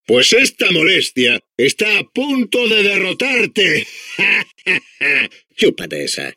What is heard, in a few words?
Adventure core babble, es; Core voice lines